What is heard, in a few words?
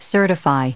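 "certifying", US English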